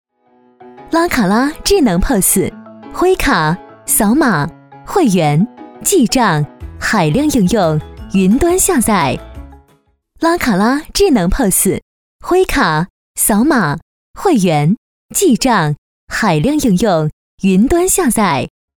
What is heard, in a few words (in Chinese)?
女 视频广告; 拉卡拉